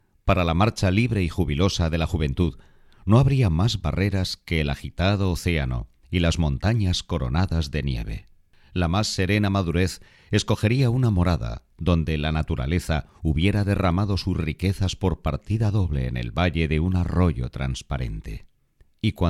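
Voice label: Spanish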